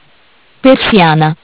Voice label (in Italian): "Persiana", MESSAGGI VOCALI; Bentel; BLE320; Audio BGS220